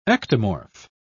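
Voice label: "ectomorph", British English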